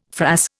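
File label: "frass", Indian English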